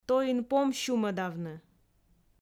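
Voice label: "тоин пом сюмӧдавны", Komi, female; audio dict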